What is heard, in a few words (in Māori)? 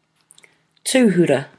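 "tuhura", Māori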